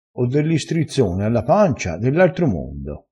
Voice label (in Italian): "strizzone", crespinese